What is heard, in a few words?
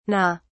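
"nga", British English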